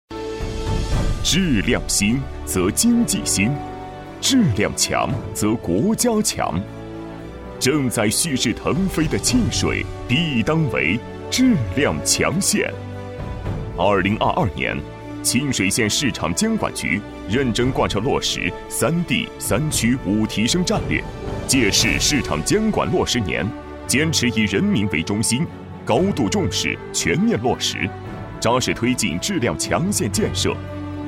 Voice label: 男 政府专题 大气硬朗; 大气主流; 大气浑厚

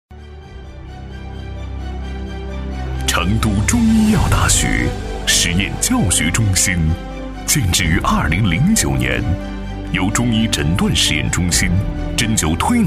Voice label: 男 学校宣传片 厚重硬朗; 成都中医药大学实验教学中心; 男29-时尚大气 大气浑厚

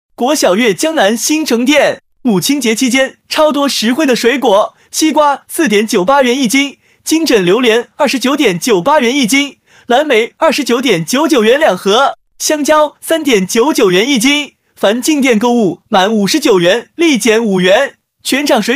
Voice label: 年轻叫卖男; 水果店母亲节超多实惠; 果小悦

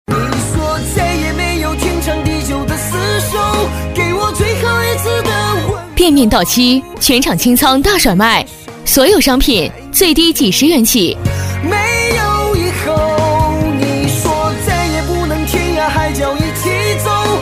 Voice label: 女, 促销广告, 清仓大甩卖

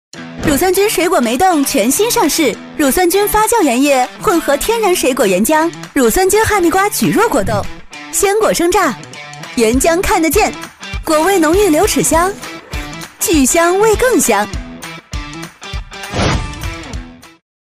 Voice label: 女, TVC, 零食, 果冻; 梅冻

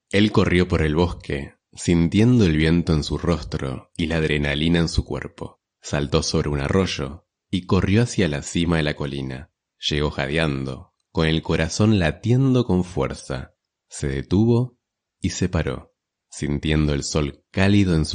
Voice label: 西班牙男声

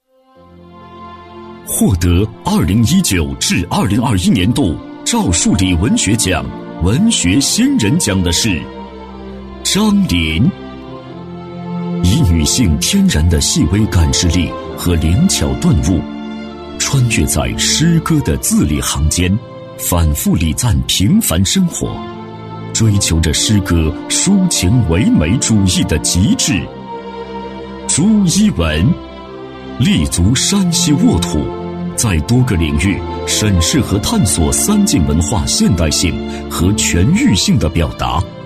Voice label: 男-人物颁奖 颁奖词-磁性有力; 赵树理文学奖颁奖词; 年会颁奖配音

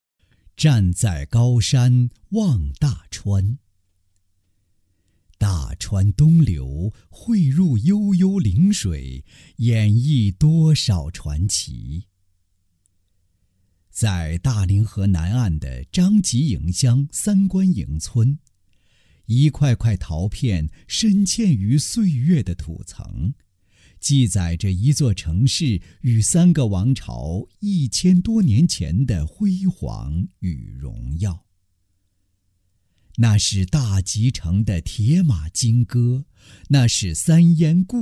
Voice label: 男 宣传片 醇厚 历史感; 成熟稳重; 沉稳大气

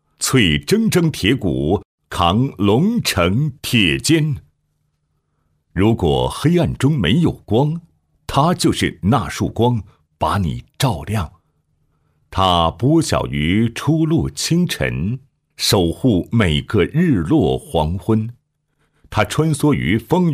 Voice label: 男 人物专题 歌颂大气; 大气稳重; 大气浑厚

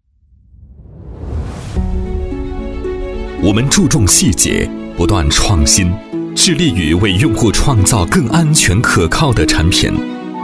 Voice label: 男, 产品宣传, 科技质感; 铭泰

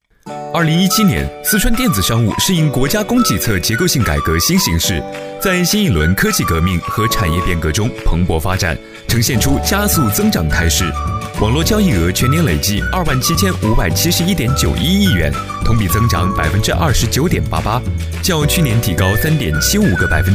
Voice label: 男 专题配音 轻松科技; 电子商务年报; 年轻稳重; 科技时尚

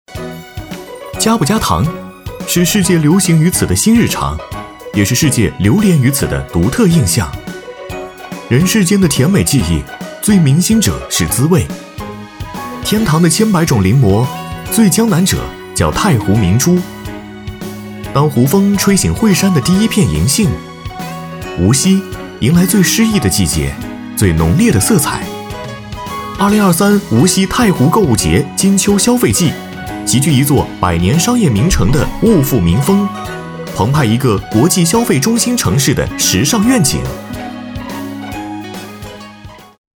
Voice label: 男 活动宣传 年轻活力; 无锡太湖购物节

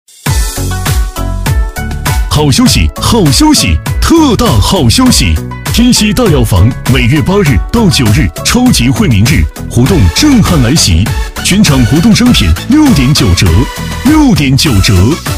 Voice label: 激情促销男; 天希大药房超级惠民日

